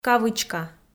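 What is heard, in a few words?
"кавычка" Komi, female; kpv audio dict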